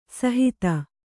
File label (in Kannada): "ಸುಹಿತ", Kannada